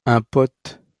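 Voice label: "un pote", French pronunciation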